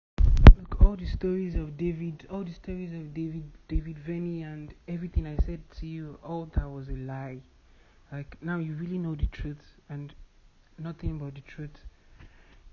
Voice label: audio Message